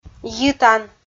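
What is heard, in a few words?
"йитан", Komi, female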